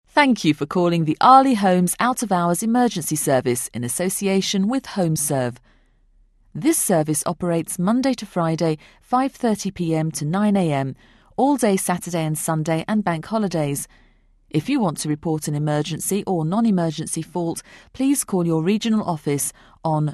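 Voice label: English IVR, ivr voice prompts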